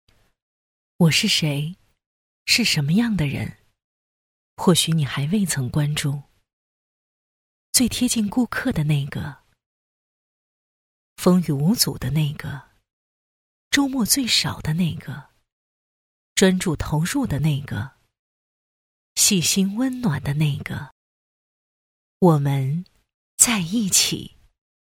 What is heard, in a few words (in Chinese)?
女 专题 深沉磁性; 惠氏的一天